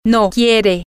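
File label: female, spanish; she wants no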